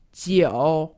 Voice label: "jiu", Chinese; Survival Chinese